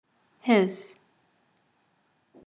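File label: "his", English pronunciation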